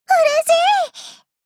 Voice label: shock